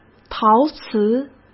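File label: "陶瓷", Mandarin Chinese; chinese flashcards; hsk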